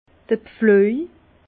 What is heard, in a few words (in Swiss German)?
"pfluej", Bas Rhin; vin; charrue